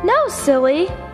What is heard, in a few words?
no silly, girl; Voice; expletives